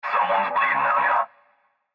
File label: Cb Trucker Radio; Radio Voice Sound Effects; Blastwave